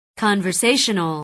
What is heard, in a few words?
conversational